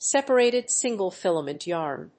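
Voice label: "separated single filament yarn", English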